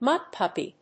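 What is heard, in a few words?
"mudpuppy", English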